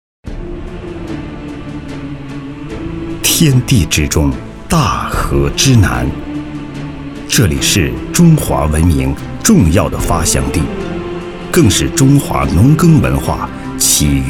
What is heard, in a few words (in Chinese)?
宣传片花; 天地之中